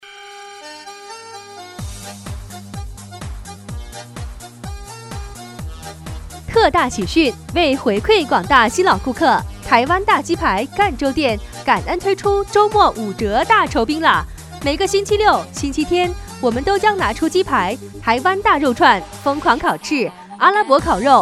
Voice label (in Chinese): 【促销】台湾大鸡排女 激情